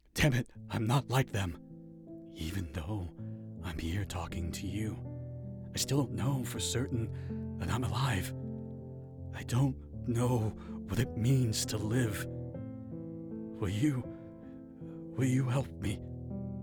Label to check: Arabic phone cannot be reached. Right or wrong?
Wrong